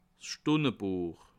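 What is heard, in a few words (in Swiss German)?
"stundebuech", Bas Rhin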